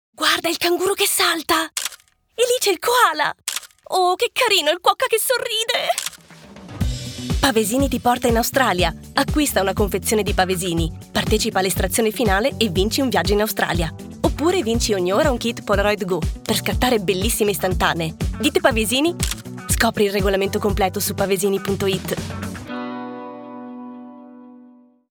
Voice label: italian; professionelle italienische Schauspielerin